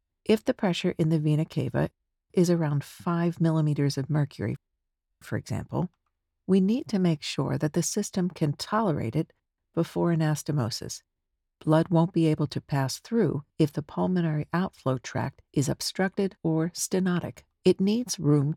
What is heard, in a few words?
Medical Dubbing